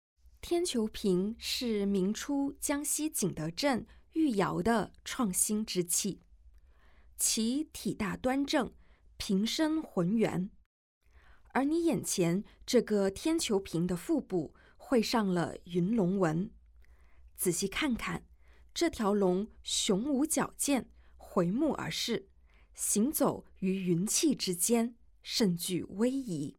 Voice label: Mandarin; audio guide; g3-ming-dynasty-ceramic-treasures-from-the-palace-museum